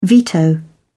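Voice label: "veto", British English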